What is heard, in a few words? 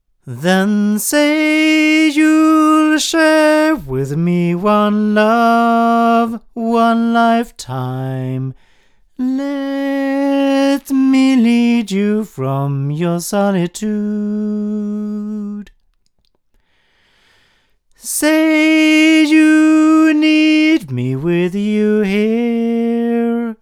Generis GT2 Mk2 8 male